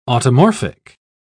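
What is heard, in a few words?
"automorphic", English, British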